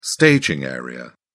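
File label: "staging area", British English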